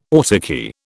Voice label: "autarky", British